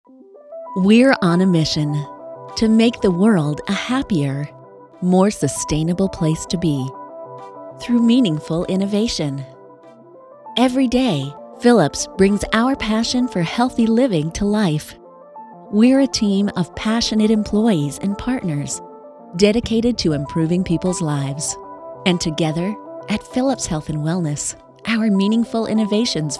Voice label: corporate narration